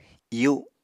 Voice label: "iyu7", Apatani